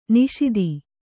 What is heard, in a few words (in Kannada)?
"ನಿಷೀಧಿ", Kannada